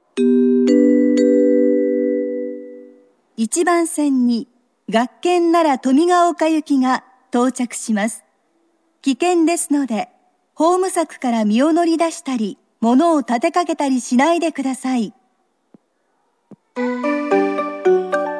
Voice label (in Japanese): 学研奈良登美ヶ丘行き, 中央線車内放送・駅放送; 学研奈良登美ヶ丘行き2